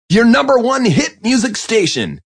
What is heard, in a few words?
Number 1 Hit, Male; Radio; VoiceBox